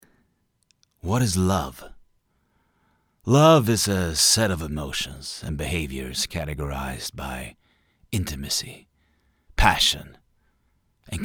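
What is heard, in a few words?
English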